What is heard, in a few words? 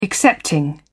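"excepting", British English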